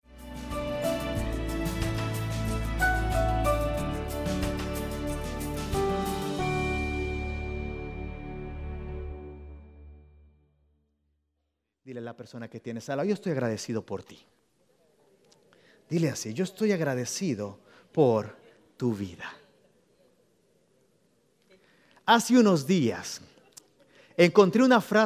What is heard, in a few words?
Spanish Sermon